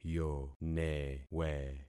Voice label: "yonewe", concat syllables; Affixing experiment